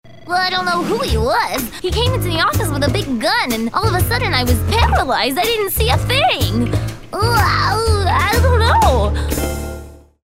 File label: Office Girl